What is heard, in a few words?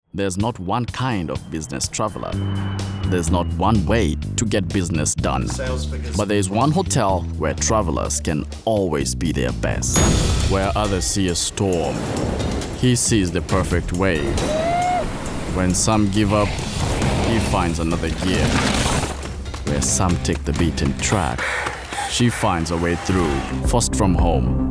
Commercial Voice